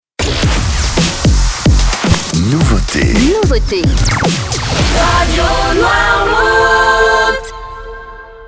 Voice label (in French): Nouveauté, jingles